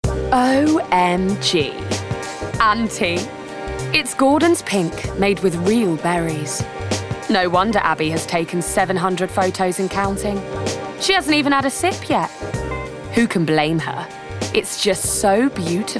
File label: Commercial, melodious